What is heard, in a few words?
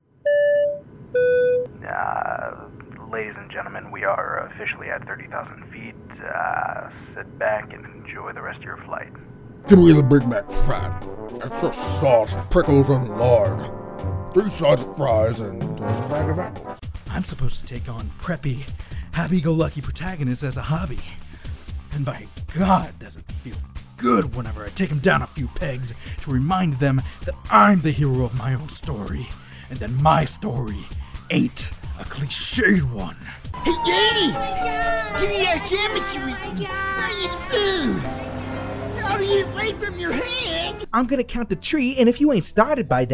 Character